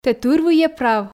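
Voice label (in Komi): "тэ тырвыйӧ прав", Komi, female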